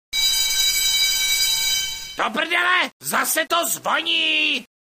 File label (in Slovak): sms hlásky cz